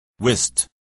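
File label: "whist", English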